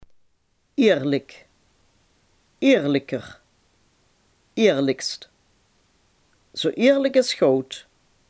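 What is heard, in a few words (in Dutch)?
"eerlijk" Limburgish dialect word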